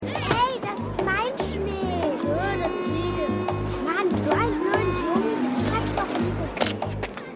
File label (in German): 2 Kinder; AusgerechnetAlaska